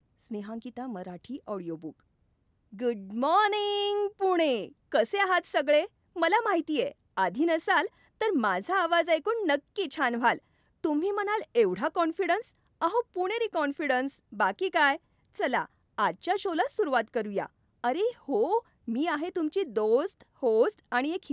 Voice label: Marathi F Audiobook